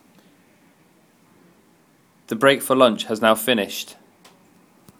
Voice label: Lunch break end male voice

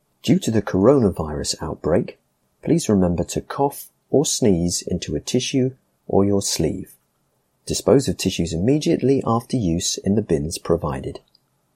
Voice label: Schools coronavirus cough sneeze male voice

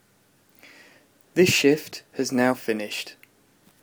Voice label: Shift end male voice

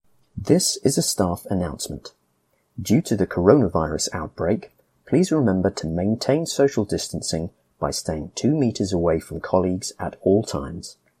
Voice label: Coronavirus social distancing male voice

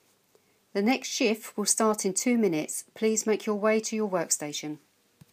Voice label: Shift start female voice

